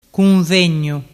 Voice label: "cunvegnu", dialettu